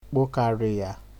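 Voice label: "kpo carrier" Nigerian Pidgin, male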